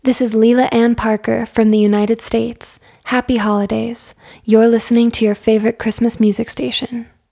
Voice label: station drop neutral voice only; station drops